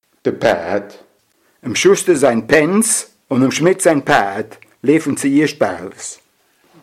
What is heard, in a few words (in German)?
"Pääd" mit Satz